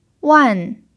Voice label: "wan4", chinese